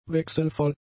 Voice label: "wechselvoll", German; adjectives